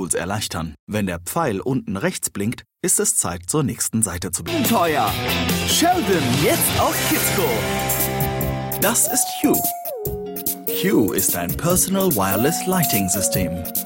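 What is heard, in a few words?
german male e-learning; voice demos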